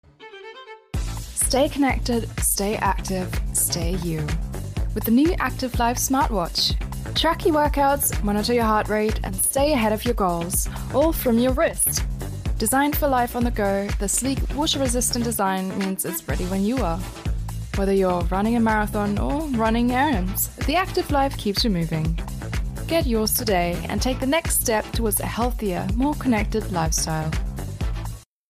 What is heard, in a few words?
Commercial, female voices